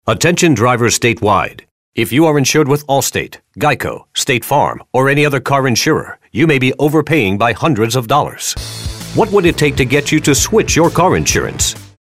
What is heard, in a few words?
authoritative